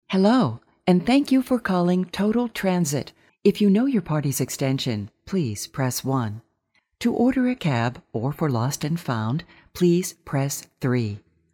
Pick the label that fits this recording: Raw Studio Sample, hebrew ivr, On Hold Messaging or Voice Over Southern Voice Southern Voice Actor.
On Hold Messaging